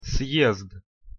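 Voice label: "съезд", Russian pronunciation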